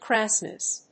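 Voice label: "crassness", English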